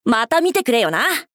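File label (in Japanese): 「また見てくれよな！」, 男の子 王道系少年主人公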